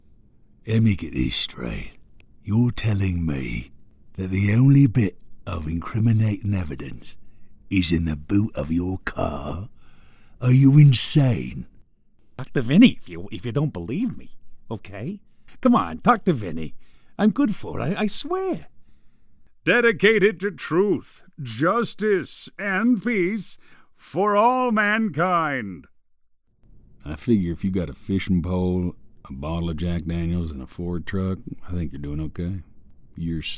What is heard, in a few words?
Character